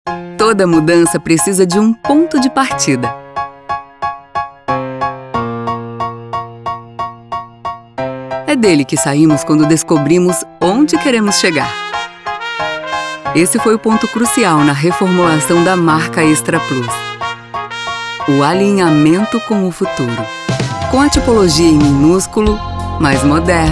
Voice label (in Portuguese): Natural Conversado Elegante; Extra Plus; Lancamento de Nova Marca